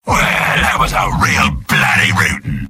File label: sniper jeers; video games; source engine; tf; mvm